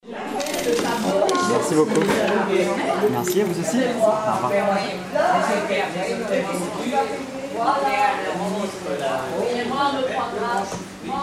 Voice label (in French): On parle breton